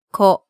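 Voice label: "ko", Japanese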